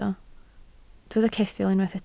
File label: "ceffyl", Welsh